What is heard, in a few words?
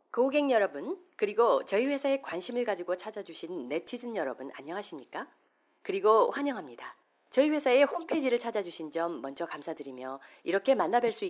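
Korean commercial; GoLocalise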